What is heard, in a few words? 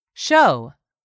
"show", english; 3000 words